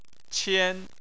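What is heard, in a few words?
"qian", Chinese, tone 1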